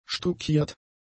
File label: "stuckiert", German; adjectives